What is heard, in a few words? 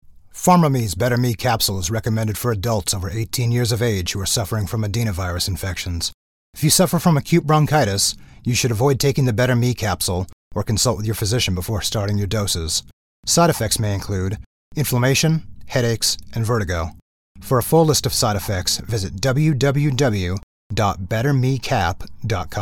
pharma vo sample